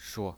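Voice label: "shuo", Mandarin Chinese pinyin, neutral tone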